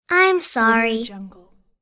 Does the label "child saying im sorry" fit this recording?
Yes